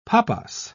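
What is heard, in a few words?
"papas", British English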